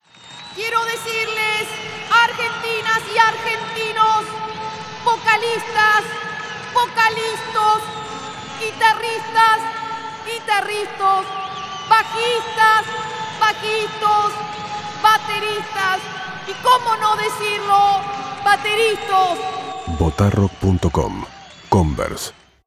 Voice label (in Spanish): hablados, acting; cfk convers